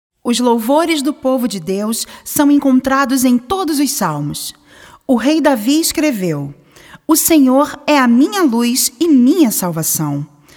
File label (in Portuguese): Narracao; Deus em Nos